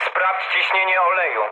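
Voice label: damaged oil, mood med, Polish speech; War Thunder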